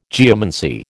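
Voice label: "geomancy", American English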